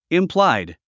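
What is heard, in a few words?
"implied", English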